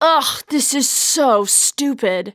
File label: Waitress Anger; zps